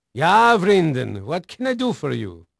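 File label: Dutch male, voice; Pirates Of The Caribbean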